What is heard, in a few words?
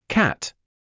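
"cat", British English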